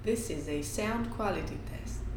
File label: voice 50cm back